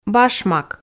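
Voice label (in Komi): "башмак", female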